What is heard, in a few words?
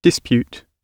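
"dispute", British English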